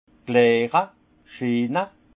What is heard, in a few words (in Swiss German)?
"klare", alsace; vin; clarifier